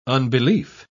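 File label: "unbelief", British English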